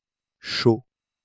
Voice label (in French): "chaud", French